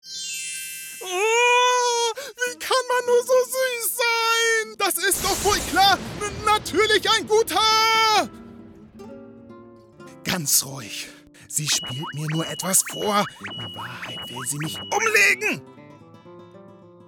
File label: Anime